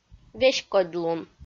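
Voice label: "веськодьлун", Komi, female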